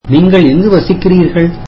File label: tamil Where do you live